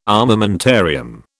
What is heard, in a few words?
"armamentarium", British